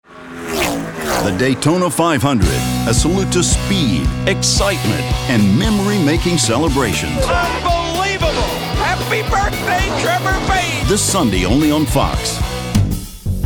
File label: Promo, Male voices